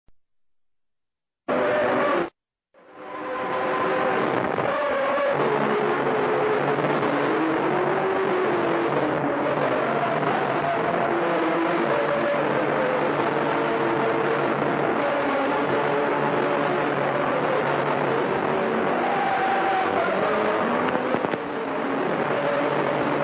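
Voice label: Turkish State Meteorological Service Ankara 6900 kHz, Turkey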